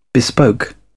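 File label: "bespoke", British English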